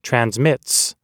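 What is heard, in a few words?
"transmits", American English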